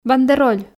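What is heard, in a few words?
"бандероль", Komi, female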